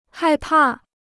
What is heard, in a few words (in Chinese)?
"害怕", Chinese